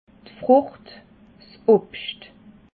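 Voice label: "frucht", Alsatian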